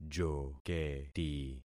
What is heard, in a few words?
"joketi", concat syllables; Affixing experiment